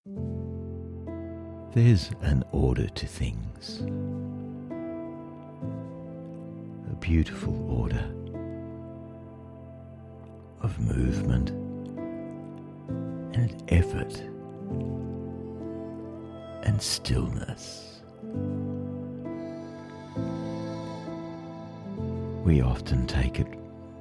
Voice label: Emotive Slow Meaningful Thoughtful, Natural Speak, Meditation, male; Beauty of Harmony